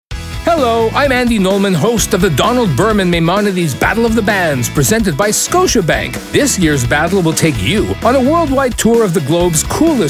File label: radio spot